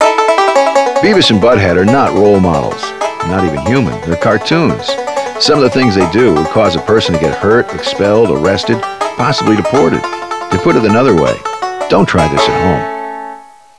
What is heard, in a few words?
Disclaim